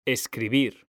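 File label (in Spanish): "escribir", Spanish; mekanta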